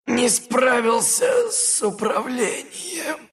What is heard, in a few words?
death, ru; Dota 2